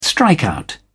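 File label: "strikeout", English, British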